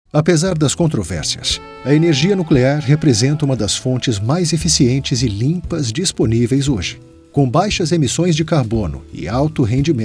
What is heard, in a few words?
Brazilian Portuguese Voice, Video Game; Male